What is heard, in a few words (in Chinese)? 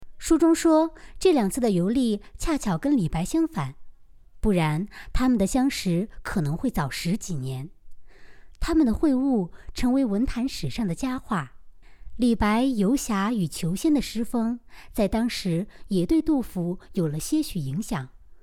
录音轻快; 杜甫